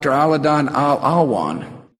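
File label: teralladon don allwan, gibberish; Voice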